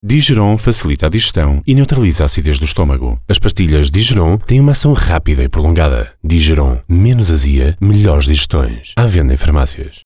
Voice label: spot; Digeron